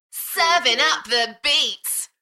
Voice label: UK female Enthusiastic; beanstalk-audio

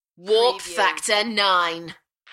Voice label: UK female, Warp factor nine; beanstalk audio

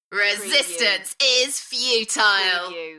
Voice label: UK female, Enthusiastic; beanstalk-audio

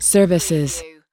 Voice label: "services", US female

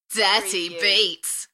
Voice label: UK female Dirty beats Enthusiastic; beanstalk audio

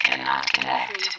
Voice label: Robot voice - cannot connect

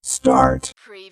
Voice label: Robot voice, "start"; lynne publishing

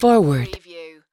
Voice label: US female, "forward"; lynne publishing

